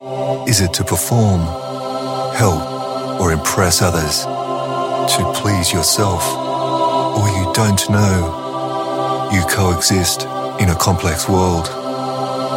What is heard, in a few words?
Explainer Elearning